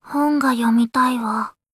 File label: chitchat, voice commands; svencoop; Blanc